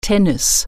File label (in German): "tennis", German